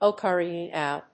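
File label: "ocarina", English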